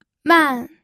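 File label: "man", fourth tone, pinyin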